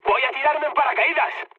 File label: bailout, mood med, speech; War Thunder